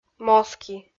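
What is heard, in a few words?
"моски" Komi, female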